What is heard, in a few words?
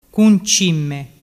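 "cuncimme", Vernazzese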